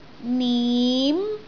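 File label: "nim2", Cantonese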